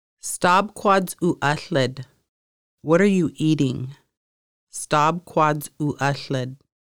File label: what are you eating, conversational Lushootseed